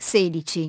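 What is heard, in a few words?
"sixteen", English; iOS 4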